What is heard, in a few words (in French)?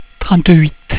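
"trente huit", French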